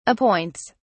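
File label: "appoints", British English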